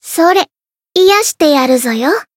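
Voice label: Voice heal, Japanese; leviachan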